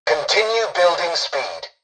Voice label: tutorial dialogue, english; War Thunder

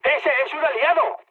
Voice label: player friendly fire, mood med, speech

